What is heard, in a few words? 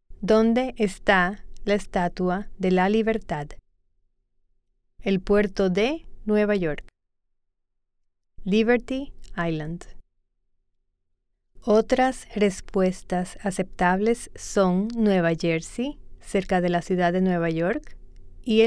Spanish